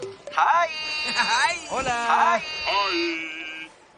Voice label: Hiiiiiiiiiiieeeeeeyy